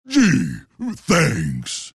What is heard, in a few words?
tiny thanks; dota